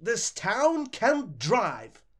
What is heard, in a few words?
start, voices angry pursuit; DanisRace